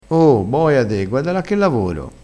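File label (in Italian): "boia", dialettale crespinese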